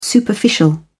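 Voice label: "superficial", English, uk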